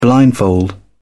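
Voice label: "blindfold", British English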